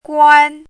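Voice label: "guān", Mandarin Chinese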